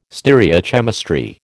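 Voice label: "stereochemistry", American English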